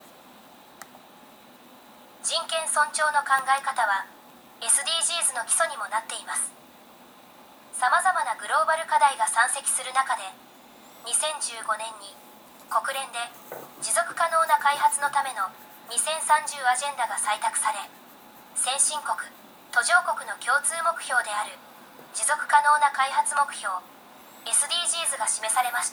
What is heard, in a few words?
elearning; japan; business and human rights